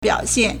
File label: "biao3xian4", Chinese